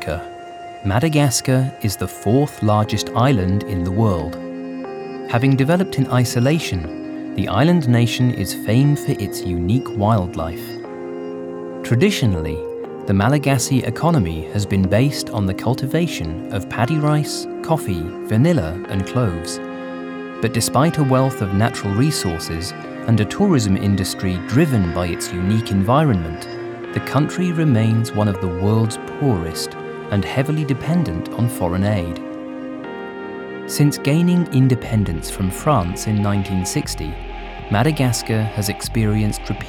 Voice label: Narrative Reel

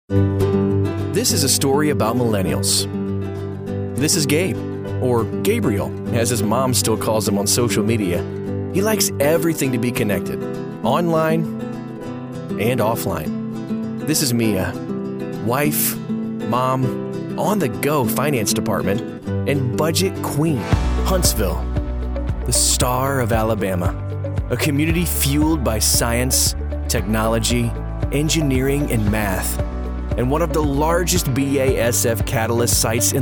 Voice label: corporate conversational

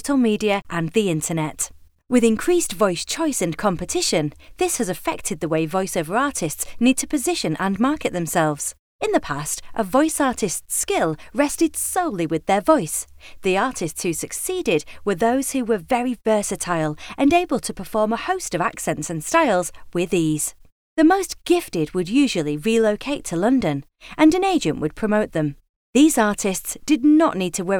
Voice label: Dry Studio Sample

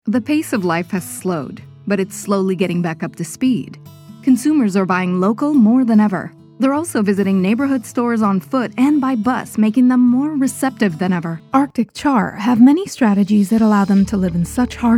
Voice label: Corporate Narration English Music

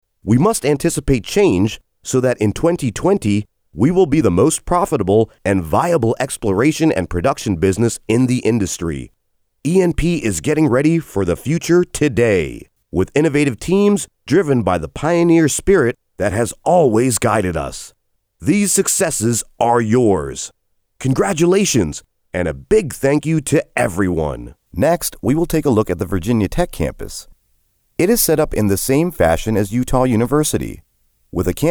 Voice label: Corporate

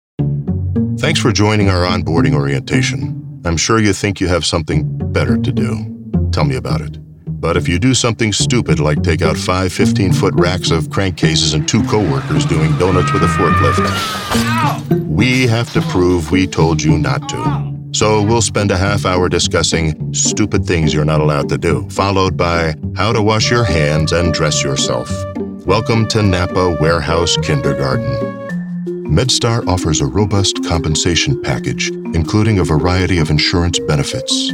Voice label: E Learning

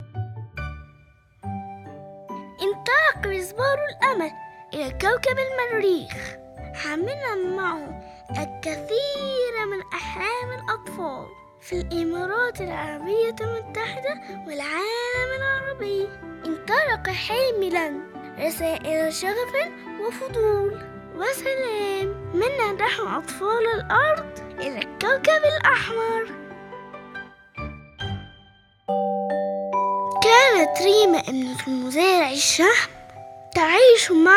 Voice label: with music

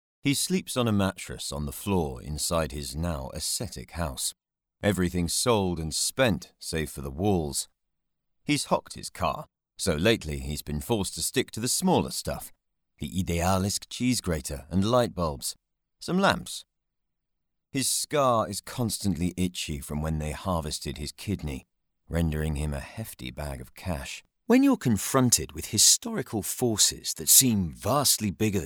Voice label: Audiobook

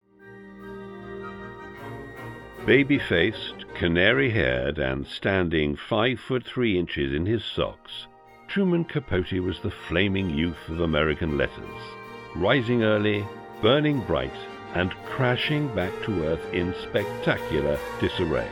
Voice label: Narration combi

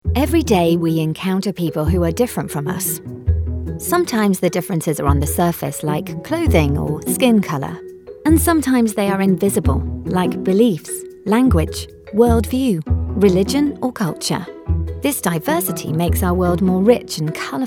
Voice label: Corporate Narration

